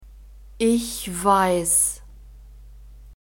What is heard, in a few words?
"ich weiss", German; verbs present tense irregular